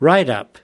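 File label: "write up", US English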